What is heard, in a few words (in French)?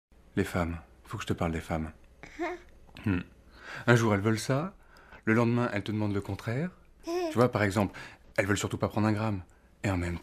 radio pub spot